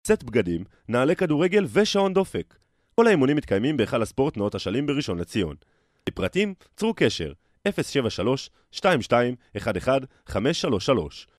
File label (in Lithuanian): (VYR) Hebraju balsas; vyras